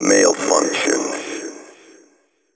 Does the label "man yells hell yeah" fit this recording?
No